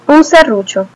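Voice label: saw desc, Spanish; tuxpaint-stamps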